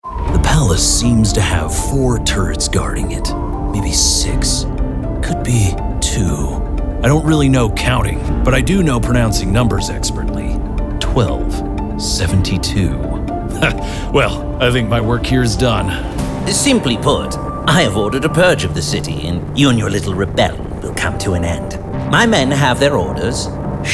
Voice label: Character Demo